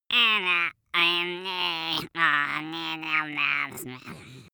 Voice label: goblin mumble loud

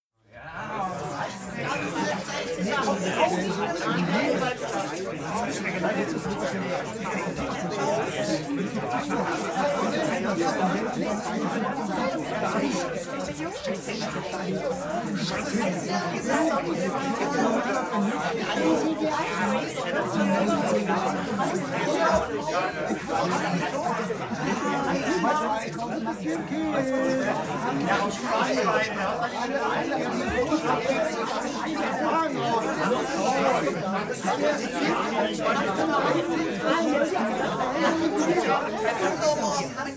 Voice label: talk upset

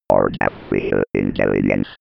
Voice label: computer voice

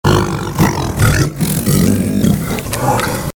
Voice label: evil creature talk

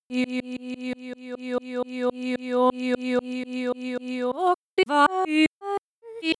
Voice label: glitch talk fx

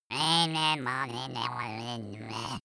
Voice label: goblin mumble

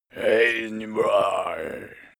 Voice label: male curse evil

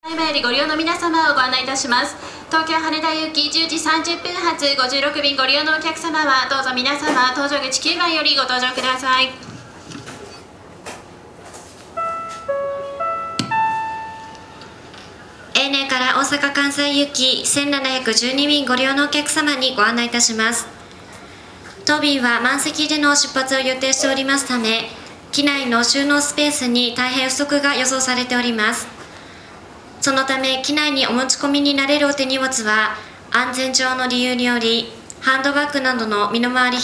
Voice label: airport japanese

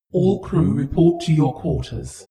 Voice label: female intelligence

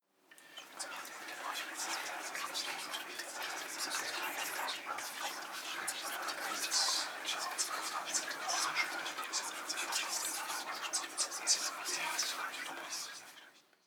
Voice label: whisper german neutral short

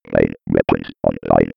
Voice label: 90s computer voice

